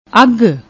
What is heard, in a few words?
"augg", Punjabi; ਅੱਘ